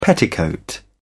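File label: "petticoat", English, British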